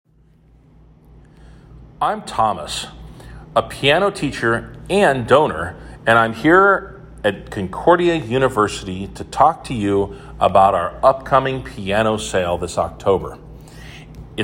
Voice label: Clavinova Pianos voiceover; Concordia